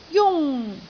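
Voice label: "jung1", Cantonese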